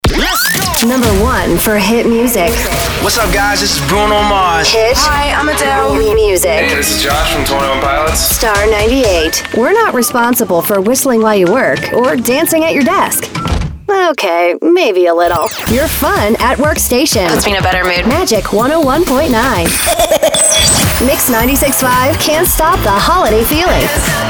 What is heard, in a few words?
Imaging Demo (Hot AC-AC)